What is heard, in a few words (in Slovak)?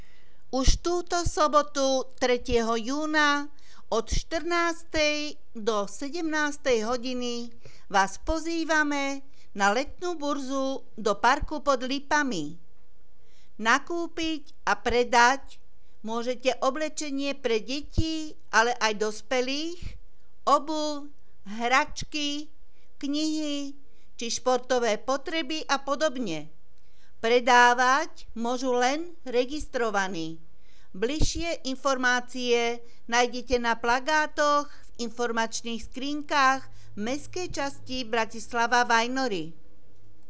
Hlásenia miestneho rozhlasu; Letná burza, pozvánka; Vajnory